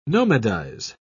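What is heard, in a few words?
"nomadize", British English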